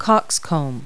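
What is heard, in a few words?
"cockscomb", English word; Multi-Lingual Talking Picture Dictionary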